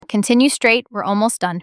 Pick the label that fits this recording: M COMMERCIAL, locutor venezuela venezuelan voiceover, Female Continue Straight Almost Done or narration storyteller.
Female Continue Straight Almost Done